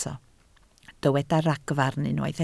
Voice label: "ragfarn", Welsh

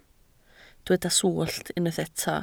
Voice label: "swllt", Welsh

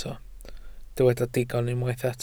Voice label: "digon", Welsh

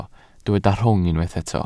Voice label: "rhwng", Welsh

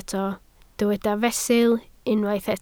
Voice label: "fesul", Welsh

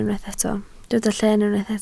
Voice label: "lleen" Welsh

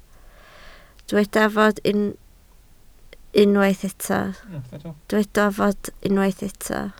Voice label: "fod", Welsh

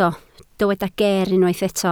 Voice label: "geer" Welsh

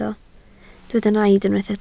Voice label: "naid", Welsh

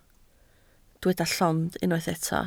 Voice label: "llond", Welsh

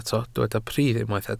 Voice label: "pridd" Welsh

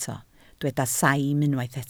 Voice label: "saim", Welsh

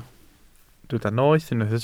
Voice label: "noeth" Welsh

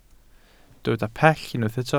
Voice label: "pell", Welsh

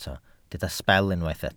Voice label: "sbel" Welsh

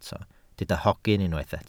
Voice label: "hogyn" Welsh

